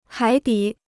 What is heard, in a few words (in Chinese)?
"海底", Chinese